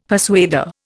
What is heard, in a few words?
"persuader", Indian; Dictionary